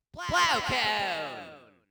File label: Echo; Plokoon